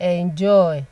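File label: "enjoy", Nigerian Pidgin, female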